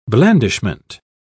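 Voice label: "blandishment", British English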